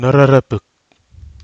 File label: "nóropɨg", Nafe (Kwamera)